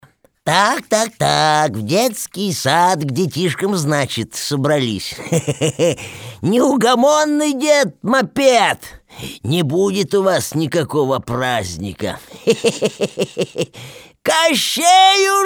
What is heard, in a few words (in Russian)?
пародия. Баба Яга